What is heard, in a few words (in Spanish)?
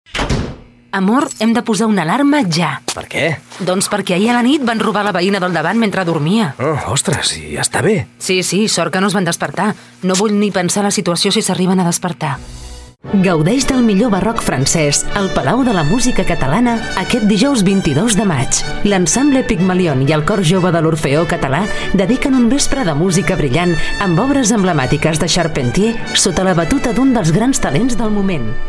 Femenino